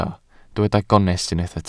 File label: "gonest", Welsh